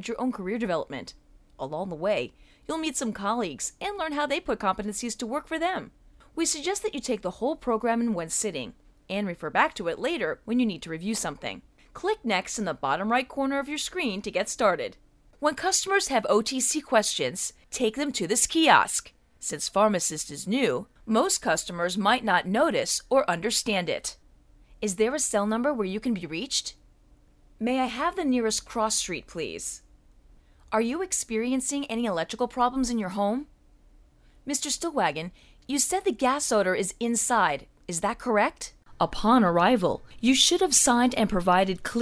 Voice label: E-Learning No Characters